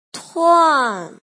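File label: "tuan4", Mandarin pinyin syllable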